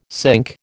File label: "cinque", American